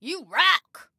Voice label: Compliment "You Rock", Female